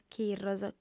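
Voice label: "chirros", female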